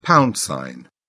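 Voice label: "pound sign", British English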